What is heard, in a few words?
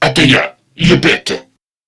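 Patrol Crewmen, English; Dialog; Barks; Battle; Warframe; CorpusCrewmen; CrewmanA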